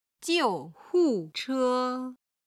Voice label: "jiu4hu4che1", Chinese

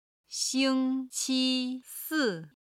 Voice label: "xing1qi1si4", Chinese

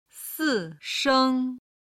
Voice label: "si sheng", Chinese

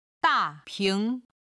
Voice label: "da4 ping2", Chinese

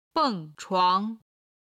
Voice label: "beng4chuang2", Chinese

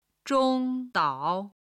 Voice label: "zhong1 dao3", Chinese

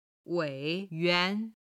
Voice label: "wei3 yuan2", Chinese

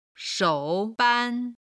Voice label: "shou ban", Chinese

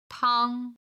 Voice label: "tang", Chinese

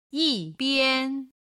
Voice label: "yi4bian1", chinese

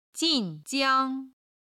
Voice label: "jin4jiang1", Chinese

